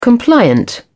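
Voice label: "compliant", British English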